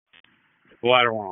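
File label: FIRE Operations, p25; FIRE OPS 2; wake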